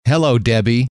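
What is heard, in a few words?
"hello", male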